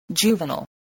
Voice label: "juvenile", English

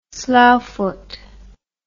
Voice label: "slough foot", words

